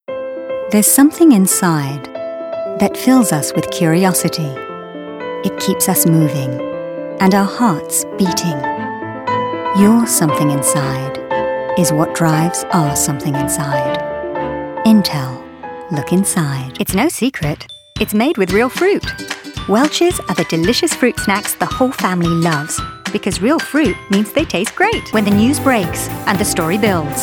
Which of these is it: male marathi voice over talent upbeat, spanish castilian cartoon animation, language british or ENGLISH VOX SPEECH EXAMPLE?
language british